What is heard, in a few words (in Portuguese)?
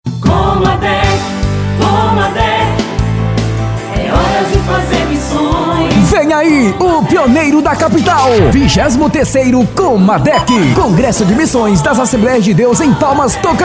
spot; comadec